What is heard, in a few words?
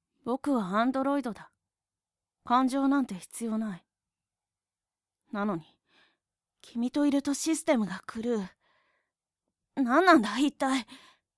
boy